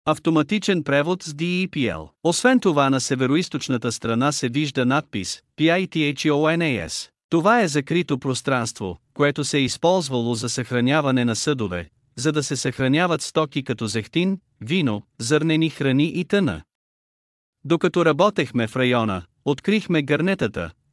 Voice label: bulgarian, Обиколка с аудиогид; Πιθεώνας; Pitheonas